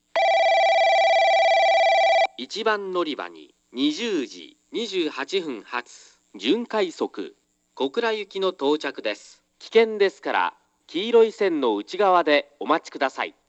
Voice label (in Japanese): 1番のりば接近放送（準快速 小倉行き）; 福間